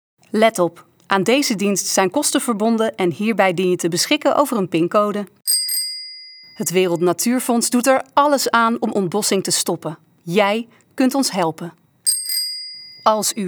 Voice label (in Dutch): Voice over Stemactrice; Compilatie overig werk